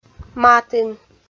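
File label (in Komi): "матын", Komi, female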